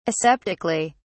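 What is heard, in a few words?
"aseptically", British English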